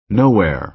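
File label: "nowhere", American English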